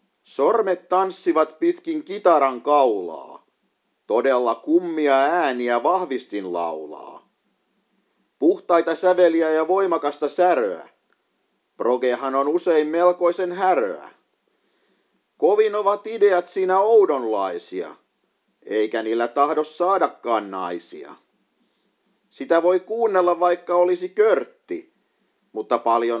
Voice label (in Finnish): progressiivinen rock, poetry